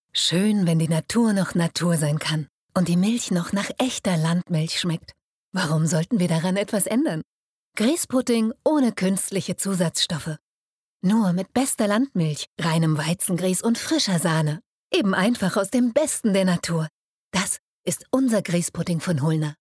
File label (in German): werbung griesspudding